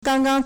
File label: "gang1 gang", Chinese